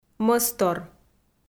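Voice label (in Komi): "мӧстор", Komi, female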